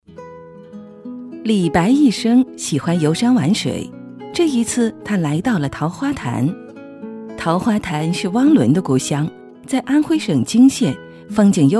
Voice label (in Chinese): 女 多媒体 培训课件; 赠汪伦课件